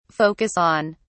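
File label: "focus on", British English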